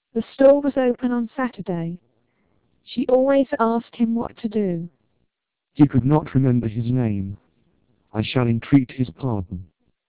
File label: 1200 bps TWELP, British